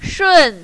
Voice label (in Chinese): "shun4", mandarin, female